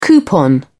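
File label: "coupon", British English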